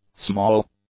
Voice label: "small", English; adjectives